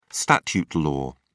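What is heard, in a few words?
"statute law", British English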